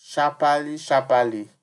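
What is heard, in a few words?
"sharpaly sharpaly", Nigerian Pidgin, male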